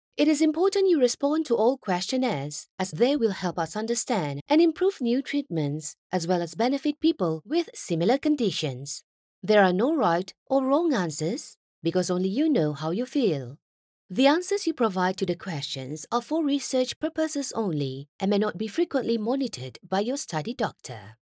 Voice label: e-Learning English